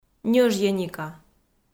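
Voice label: "ньӧжйӧника", Komi, female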